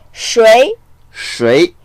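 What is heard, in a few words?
"shui2", Mandarin Chinese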